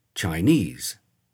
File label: Chinese